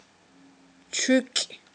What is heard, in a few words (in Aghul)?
"чуькI", Aghul